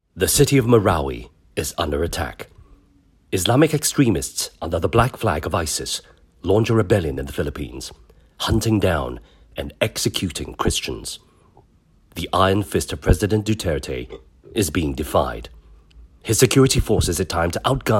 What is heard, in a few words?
Asian English Documentary